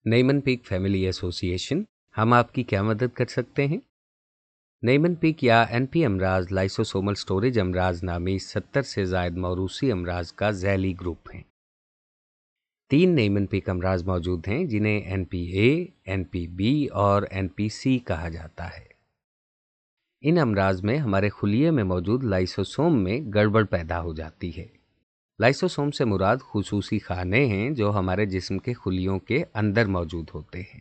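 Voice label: Urdu Pakistan male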